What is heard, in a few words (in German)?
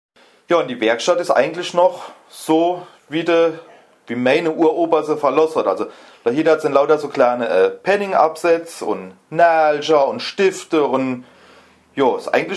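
pfennigsabsaetze naegel stifte, O-Ton Hunsrück; Külz (Hunsrück); Pfennigsabsätze, Nägel, Stifte; Schuhmacherei; Schuhmacherei Ernst Conrad, Külz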